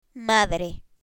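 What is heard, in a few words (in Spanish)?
"madre", Spanish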